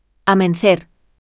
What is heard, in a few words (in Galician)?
voz sintética; Fogar